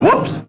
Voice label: "whoops" male; Voice; expletives

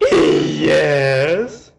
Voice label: "yes", male

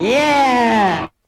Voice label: "yeah", ungendered; ooh ahh

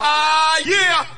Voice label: "ah yeah", Voice

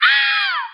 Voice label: "ow" kid

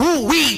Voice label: "ooh wee" male

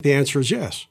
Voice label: answer is yes announcer

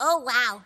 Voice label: oh wow, kid; ooh ahh

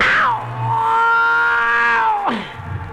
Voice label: ow male

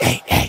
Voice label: "ay ay" male

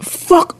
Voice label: "fuck", expletives

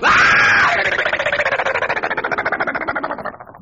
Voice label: ahhhh bbbbbbb, gibbrish; Voice

